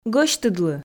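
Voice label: "гӧсьтыдлы", Komi, female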